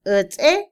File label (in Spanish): escuchar, Otomí, mujer; Percepción; INALI